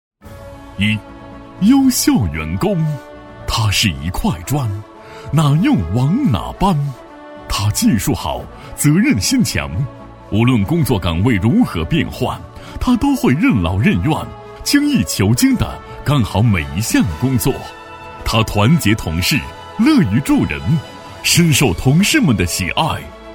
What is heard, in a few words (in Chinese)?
男 颁奖 大气, 大气浑厚